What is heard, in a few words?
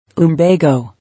"Lumbago", English